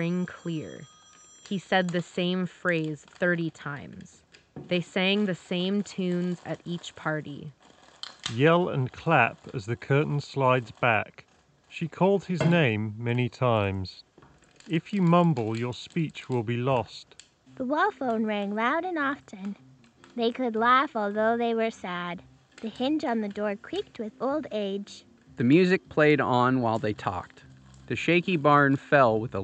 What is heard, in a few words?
Office microphone sample; Moondrop Chu